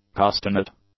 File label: "castanet", English; nouns